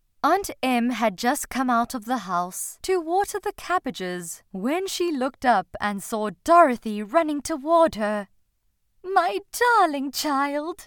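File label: English South African female narration; accent of south africa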